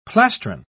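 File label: "plastron", British English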